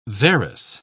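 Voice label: "varus", British English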